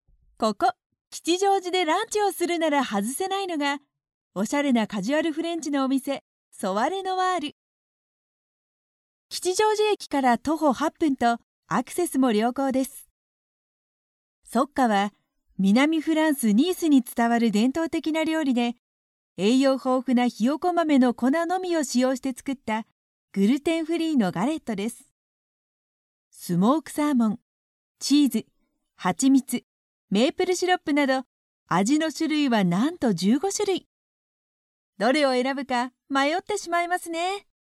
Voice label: female; ストレート